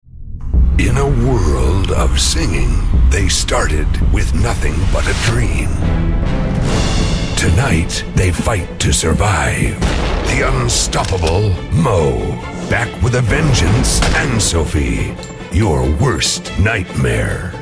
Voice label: US movie trailer

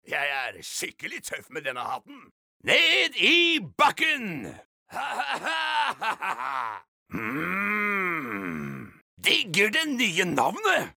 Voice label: no dub bad guy, mann; norsk

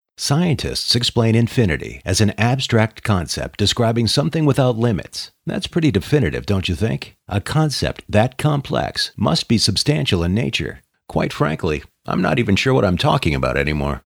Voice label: us narration

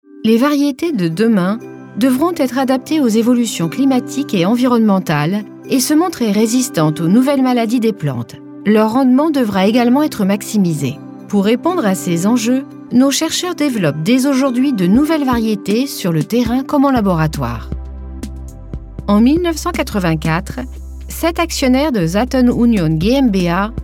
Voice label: fr informative

